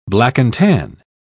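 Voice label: "black-and-tan", British English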